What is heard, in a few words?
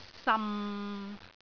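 "sam6", Cantonese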